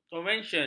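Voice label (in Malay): "konvensyen", Malay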